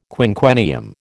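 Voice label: "quinquennium", American English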